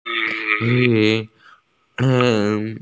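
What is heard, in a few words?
"forest", Mandarin; sen1lin2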